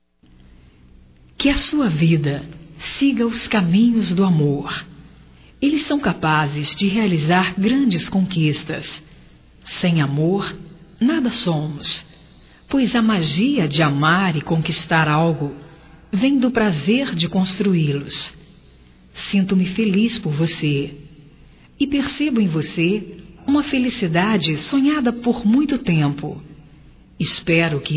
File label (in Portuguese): Vitória Geral Feminino, Telemensagens